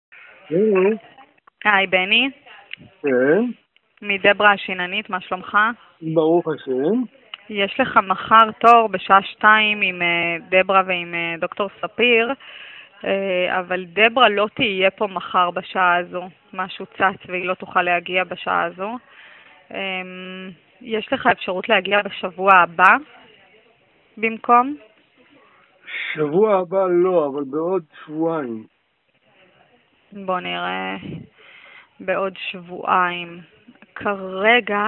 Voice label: call recording